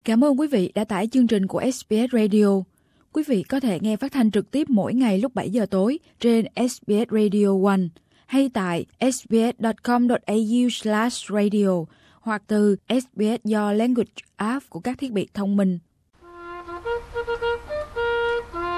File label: vietnamese; recognition of graves of forgotten veterans